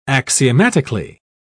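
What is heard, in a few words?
"axiomatically", British English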